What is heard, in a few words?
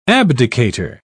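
"abdicator", British English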